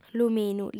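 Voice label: "luminu", female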